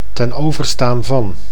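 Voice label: "ten overstaan van", Dutch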